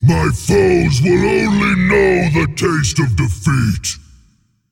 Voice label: Male Demon Bark; Rathorian